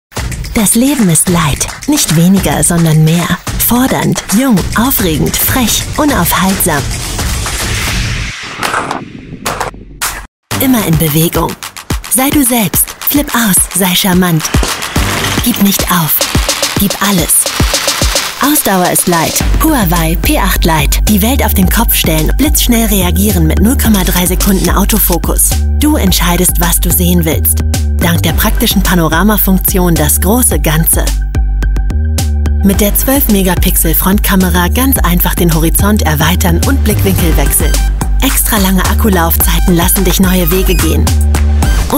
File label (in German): Online-Werbung; Huawei